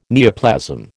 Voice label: "neoplasm", American